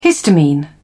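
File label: "histamine", British English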